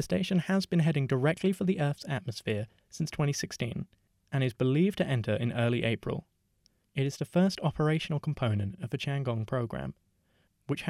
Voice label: talking tech falling space junk vocal